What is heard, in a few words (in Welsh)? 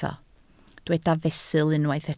"fesul", Welsh